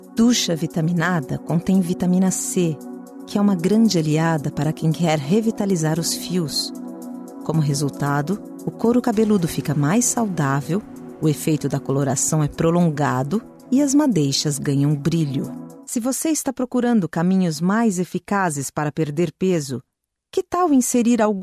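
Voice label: Brazilian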